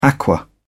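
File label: "aqua", British English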